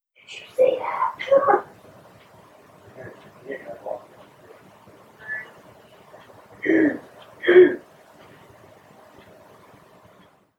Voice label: Unexplained Female Voice Amplified with Reduced Background Noise; Grace Here